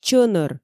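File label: "чӧнӧр" Komi, female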